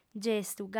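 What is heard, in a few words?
"gestu", Sardinian, female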